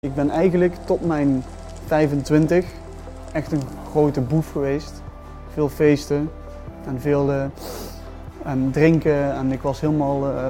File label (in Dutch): de stem